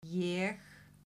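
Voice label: "ég", Icelandic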